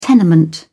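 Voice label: "tenement", British English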